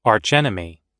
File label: "archenemy", US